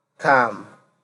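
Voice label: "calm", Nigerian Pidgin, male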